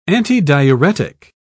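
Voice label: "antidiuretic", British English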